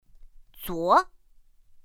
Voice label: "zuó", pinyin; pinyin2; pinyinji